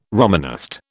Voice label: "Romanist", British